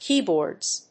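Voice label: "keyboards", English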